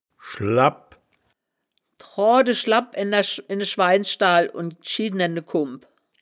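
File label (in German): "schlabbp", wittlicher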